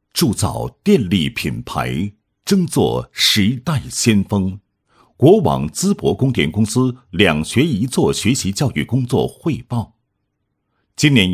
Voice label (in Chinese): 男, 工作汇报; 国网淄博供电公司“两学一做”学习教育